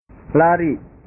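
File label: "lari", Indonesian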